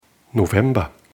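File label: "November", German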